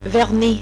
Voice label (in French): "vernis", French